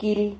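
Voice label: "quil", Spanish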